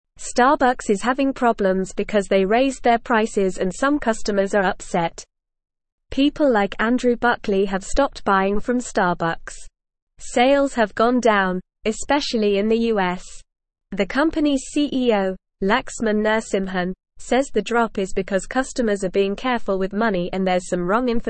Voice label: English NORMAL Reading; Starbucks Faces Sales Drop and Customer Anger

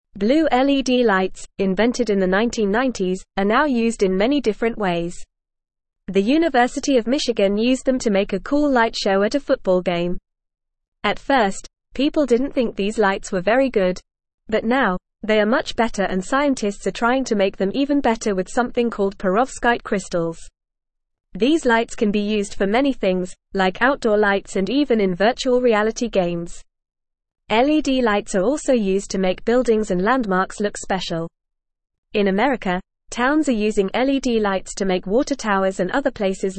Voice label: English Lower Intermediate FAST Reading; Blue LED Lights Changing How We Light Up

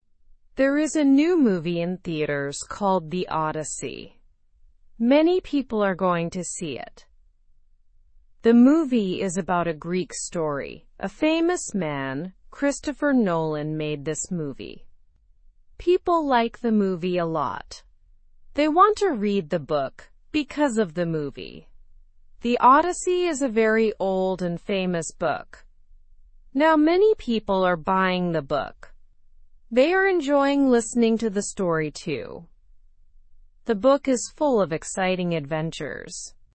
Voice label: english through news beginner slow; the odyssey movie inspires book fans

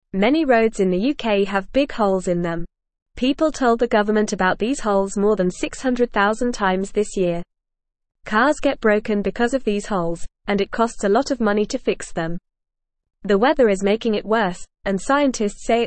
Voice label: Beginner FAST Reading, English; English Newsroom

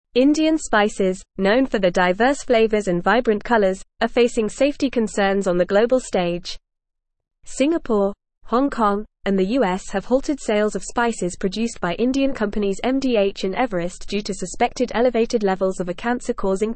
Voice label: English Advanced FAST Reading; Safety Concerns Arise Over Indian Spice Exports

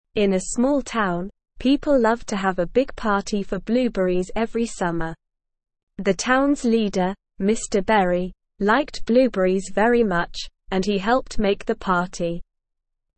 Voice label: ESL Short Stories for Kids Beginner SLOW Reading; The Bizarre Blueberry Banquet

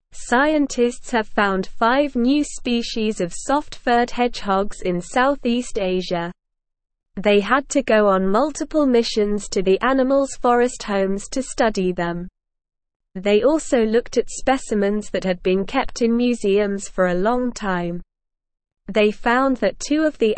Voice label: English SLOW Reading; English Newsroom; Discovery of Five New Soft Furred Hedgehog Species

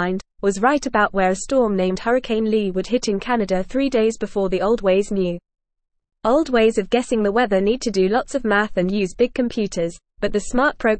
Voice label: English Lower Intermediate FAST Reading

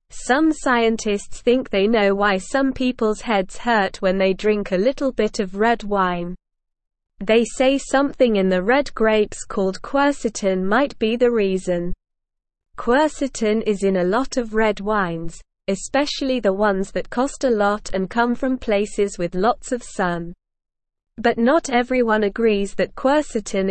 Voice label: English Newsroom Beginner SLOW Reading; Why Does Red Wine Give Some People Headaches

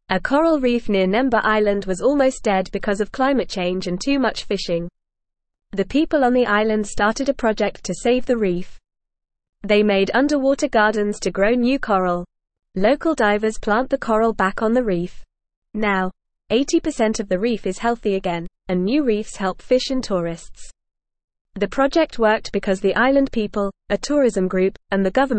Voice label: English Newsroom Beginner FAST Reading; Island People Save Coral Reef with Underwater Gardens

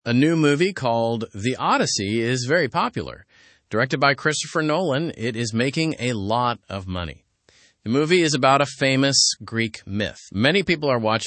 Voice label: english through news lower intermediate fast; the odyssey movie sparks book interest

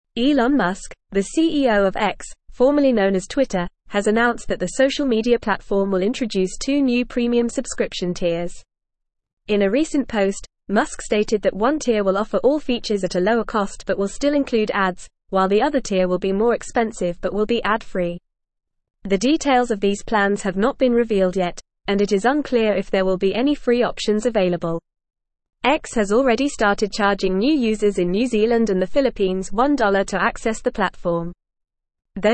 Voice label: English Newsroom Advanced FAST Reading; Elon Musks X to Introduce Premium Subscriptions; Elon Musk, the CEO of X (formerly known as Twitter), has announced that the social media p

